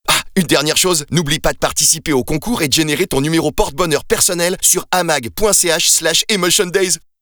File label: Radiospot FR; AMAG; emotion days 06 2023